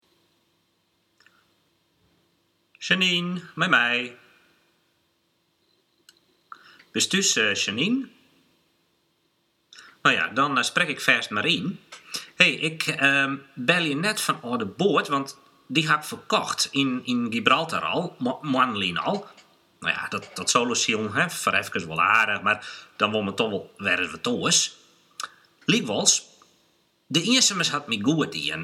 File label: voicemail